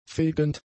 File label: "fegend", german; adjectives